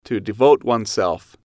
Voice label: "to devote oneself work", English